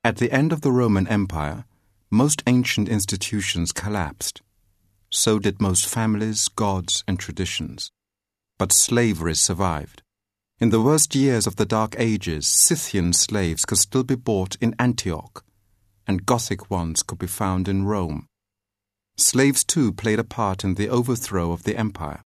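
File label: narration; 20s-30s; Male; London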